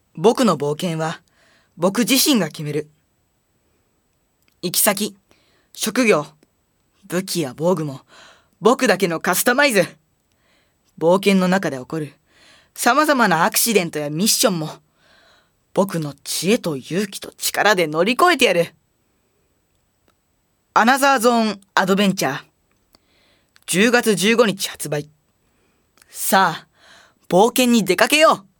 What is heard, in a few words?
narration